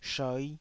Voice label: "shoi5", Taiwanese

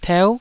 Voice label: "teu9", Taiwanese

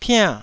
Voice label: "piann4", Taiwanese

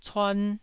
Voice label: "cuan1", Taiwanese syllable

